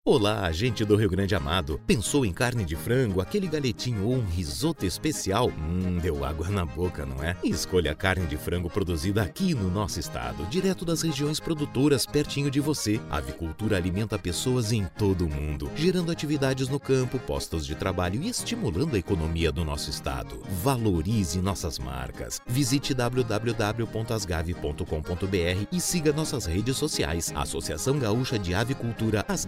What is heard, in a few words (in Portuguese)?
Comercial; ASGAV